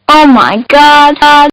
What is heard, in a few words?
Kid Says OMG; Talking; Partners In Rhyme